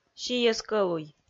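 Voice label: "сийӧс-кӧлуй", Komi, female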